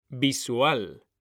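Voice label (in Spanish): "visual", Spanish; mekanta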